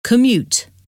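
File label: "commute", English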